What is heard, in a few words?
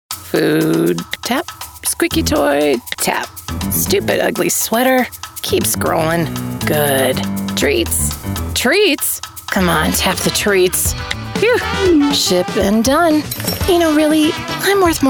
Commercial